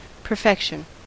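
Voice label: "perfection", US English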